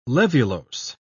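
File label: "laevulose", British English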